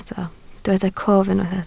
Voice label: "cof", Welsh

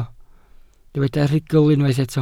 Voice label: "rhugl", Welsh

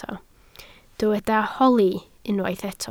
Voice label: "holi", Welsh

